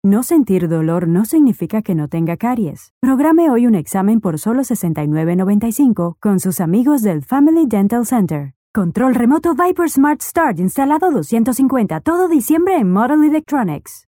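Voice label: Spanish Latin American female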